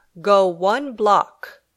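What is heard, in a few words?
"Go one block", English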